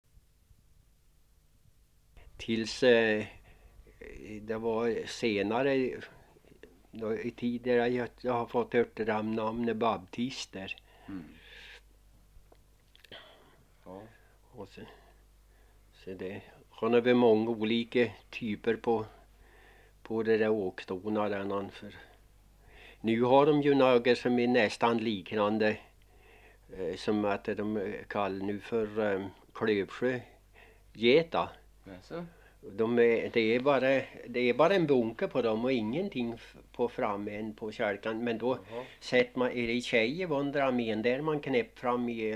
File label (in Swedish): Bräcke, dialekter; Svenska sagor; Folkminnen